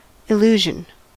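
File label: "illusion", American English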